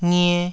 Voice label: "ngie2", taiwanese syllables

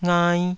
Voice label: "ngai2", Taiwanese

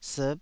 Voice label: "siip5", Taiwanese

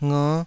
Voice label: "nger5", taiwanese syllables

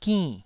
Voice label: "ginn4", taiwanese

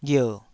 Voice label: "ghier3", Taiwanese syllable

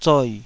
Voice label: "zoi3", taiwanese

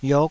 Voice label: "yok5", taiwanese

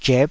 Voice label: "zhep5", Taiwanese syllable

